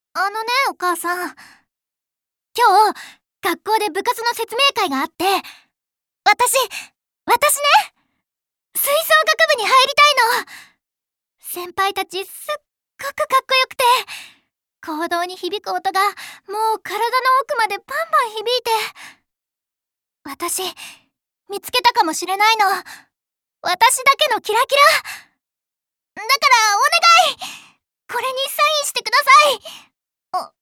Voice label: schoolgirl; 女子校生